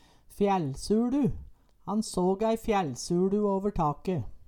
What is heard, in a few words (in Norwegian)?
fjællsuLu, Numedalsmål; Han såg ei fjællsuLu over take